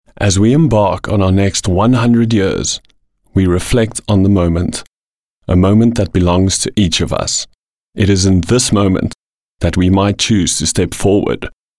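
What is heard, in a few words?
South African English, General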